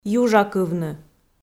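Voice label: "южакывны", Komi, female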